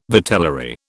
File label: "vitellary", British